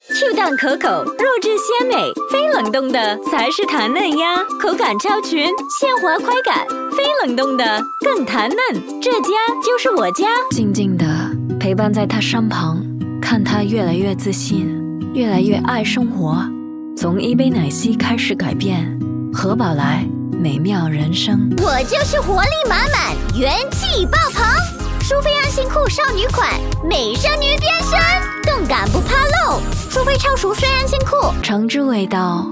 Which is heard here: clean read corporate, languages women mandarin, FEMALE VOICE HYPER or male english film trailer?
languages women mandarin